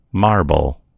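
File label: "marble", American English; gcompris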